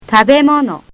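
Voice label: "tabemono", Japanese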